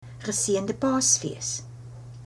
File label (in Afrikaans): easter, afrikaans